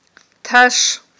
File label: "таш", Aghul